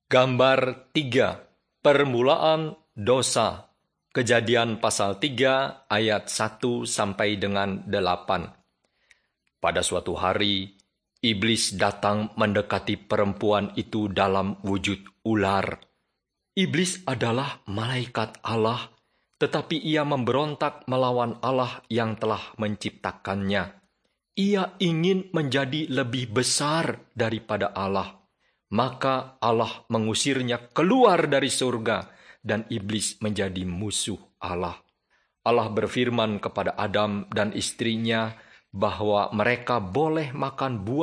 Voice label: bahasa indonesia; beginning with god